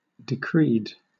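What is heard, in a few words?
"decreed", English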